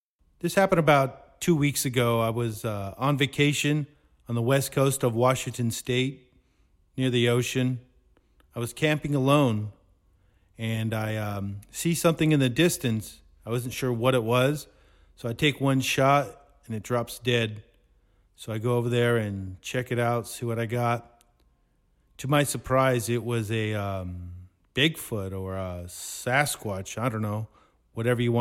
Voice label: spoken word, 120, Bm, acapellas; How I Found Sasquatch